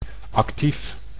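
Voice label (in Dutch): "actief", Dutch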